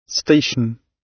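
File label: "station", British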